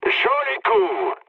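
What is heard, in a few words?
player killed enemy, mood low, French; War Thunder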